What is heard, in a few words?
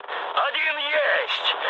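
player killed enemy, mood low, Russian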